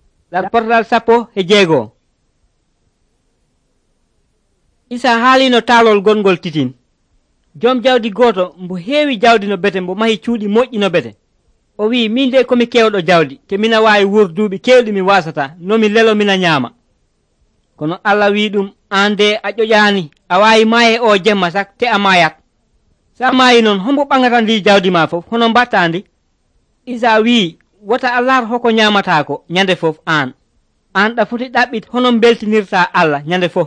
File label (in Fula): pulaar fulacunda, drama; pulaar bible society of senegal nt